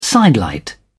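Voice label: "sidelight", British English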